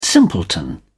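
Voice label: "simpleton", British English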